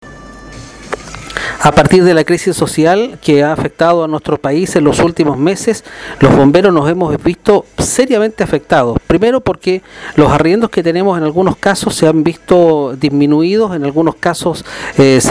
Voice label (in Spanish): cuña